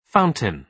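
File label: "fountain", British English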